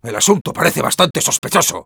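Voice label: Sniper jeers, Spanish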